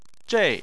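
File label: "zhei" Chinese, fourth tone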